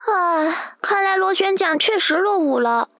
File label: sad; F5U